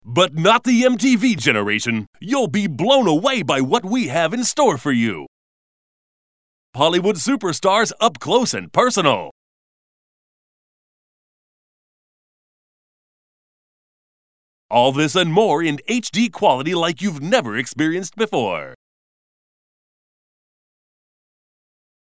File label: Male US Commercial